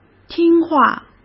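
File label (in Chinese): "听话", Mandarin Chinese; hsk; chinese-flashcards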